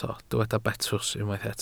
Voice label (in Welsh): "betws", Welsh